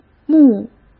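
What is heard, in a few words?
"幕", Mandarin Chinese; chinese flashcards; hsk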